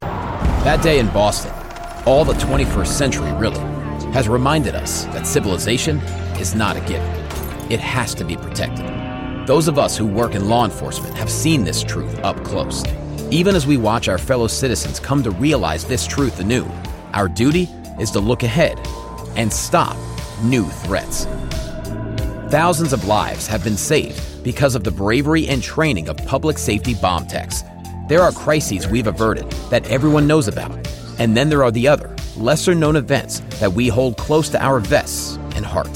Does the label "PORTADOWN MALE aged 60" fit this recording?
No